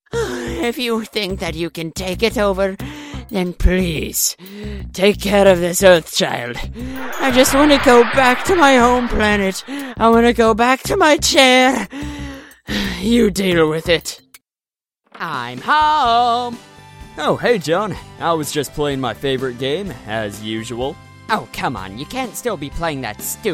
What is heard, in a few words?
cartoon